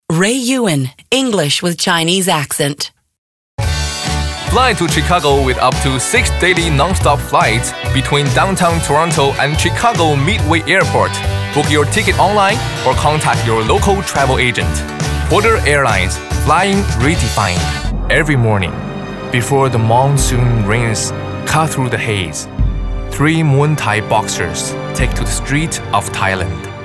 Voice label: Eng Mandarin